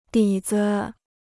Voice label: "底子", Chinese